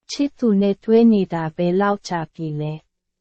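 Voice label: Burmese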